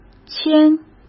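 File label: "铅", Mandarin Chinese; hsk; chinese flashcards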